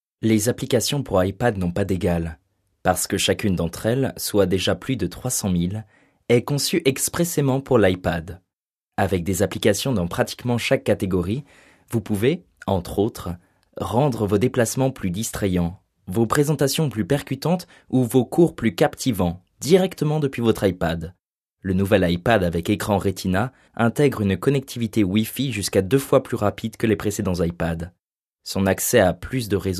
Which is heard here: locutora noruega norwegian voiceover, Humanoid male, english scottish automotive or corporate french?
corporate french